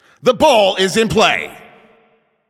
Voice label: Game Start, Announcer